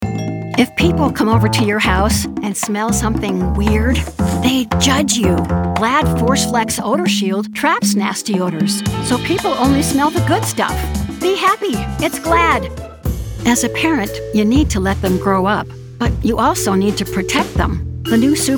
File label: Commercial